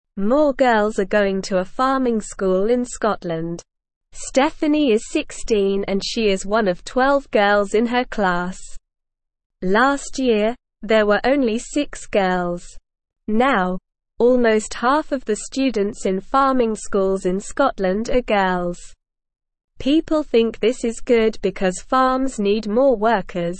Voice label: English Beginner SLOW Reading; More Girls Going to Farming School in Scotland

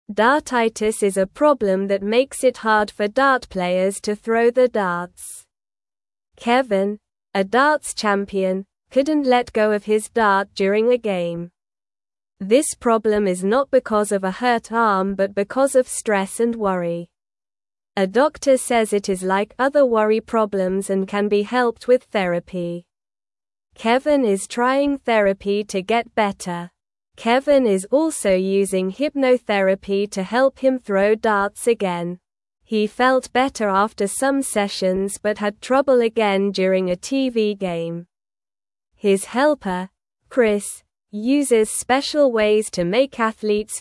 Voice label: English Newsroom Beginner SLOW Reading; Kevins Dart Problem and How He is Getting Help

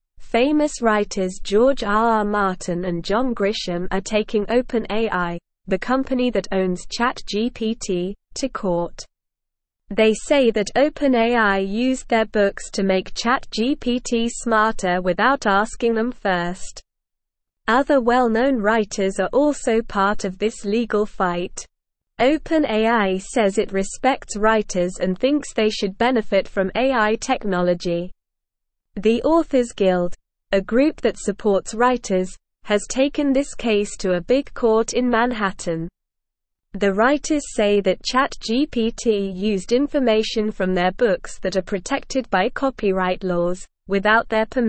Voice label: Lower Intermediate SLOW Reading, English; English Newsroom

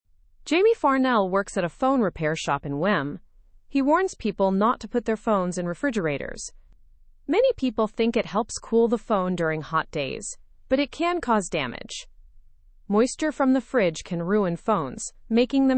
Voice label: english through news lower intermediate fast; phones don t belong in the fridge

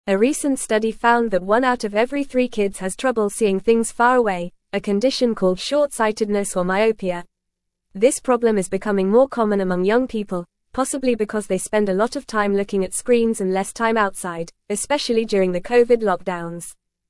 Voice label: English Upper Intermediate FAST Reading; Rising Myopia Rates in Children Global Concerns

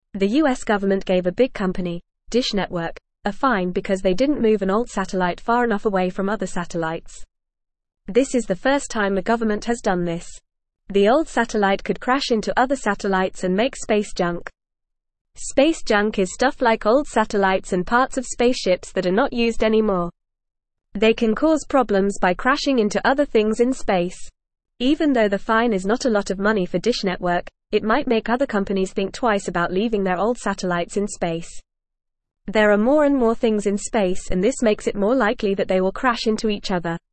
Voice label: English Newsroom Beginner FAST Reading; US Government Fines Dish Network for Space Junk

